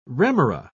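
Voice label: "remora", English, British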